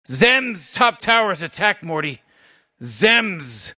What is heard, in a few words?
announcer top tower under attack; Rick and Morty